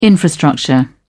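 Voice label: "infrastructure", British English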